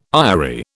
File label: "aerie", British